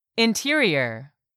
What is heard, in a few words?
"interior", English; 3000 words